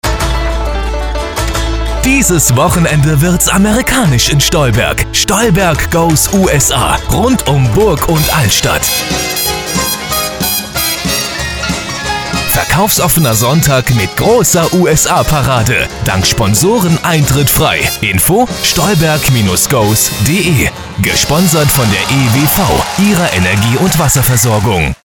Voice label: Radiospot USA; stolberg goes